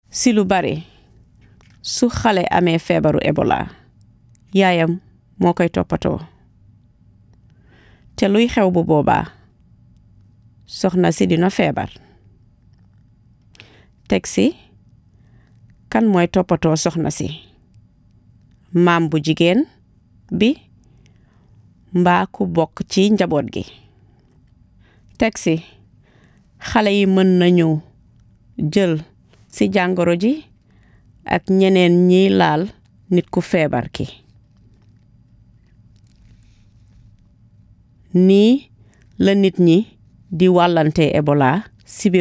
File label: wolof; ebola; radio-spots; vhf